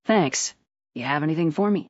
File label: fem